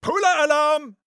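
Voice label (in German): Sniper jarate toss, German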